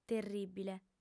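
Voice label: "terrìbile", lingua sarda, female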